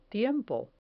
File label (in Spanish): "tiempo", Spanish